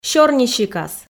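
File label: "сёрнисикас" Komi, female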